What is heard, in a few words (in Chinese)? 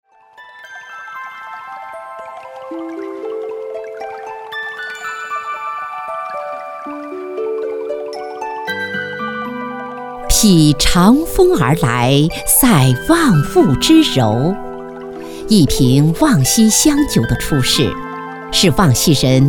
女 品牌广告; 望溪香酒